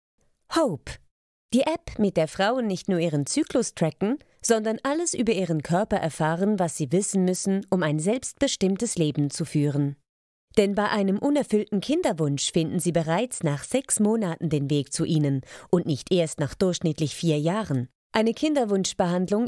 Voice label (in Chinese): 德语女声; 外籍